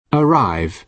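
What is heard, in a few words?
"arrive", British English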